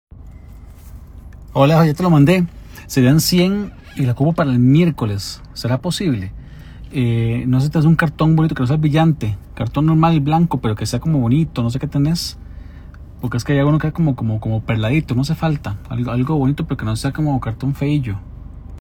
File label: voice message